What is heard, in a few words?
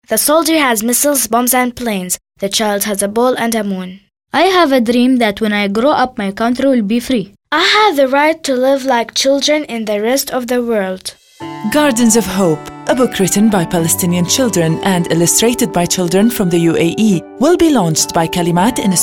en advert; gardens hope kalimat